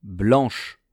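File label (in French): "blanche", French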